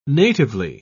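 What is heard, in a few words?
"natively", British English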